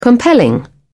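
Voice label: "compelling", British English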